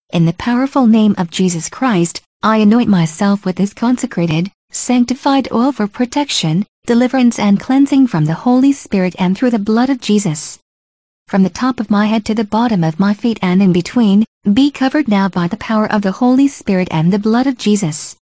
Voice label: protection prayer over yourself with oil